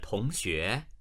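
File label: "tong2xue2", Chinese, male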